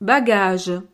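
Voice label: "bagage", French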